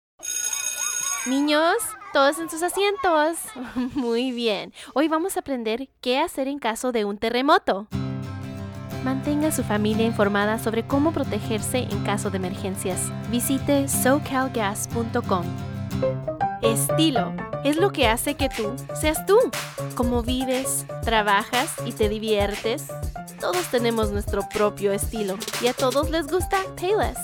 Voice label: languages accents women spanish